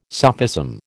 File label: "sophism", American